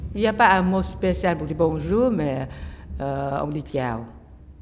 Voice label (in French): bonjour vietnamien prononciation